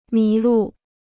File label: "迷路", Chinese